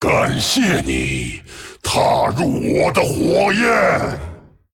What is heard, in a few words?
Male Dragon Thanks; ▶感谢你……踏入我的火焰。 ▶Thank you. Fuel for my fires!; 风暴巨龙迦拉克隆